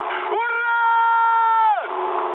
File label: player killed enemy, mood high, speech ru